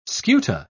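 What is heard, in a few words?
"scuta", English, British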